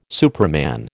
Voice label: "superman", American

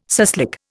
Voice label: "suslik", Indian

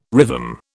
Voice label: "rhythm", British

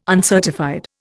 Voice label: "uncertified", Indian

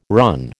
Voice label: "run", American

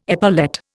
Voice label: "epaulette", Indian

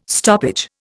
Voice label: "stoppage", Indian

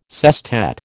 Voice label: "sestet", American

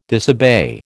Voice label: "disobey", American

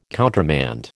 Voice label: "countermand", American

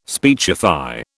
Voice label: "speechify", British

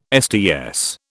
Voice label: "Sts", British

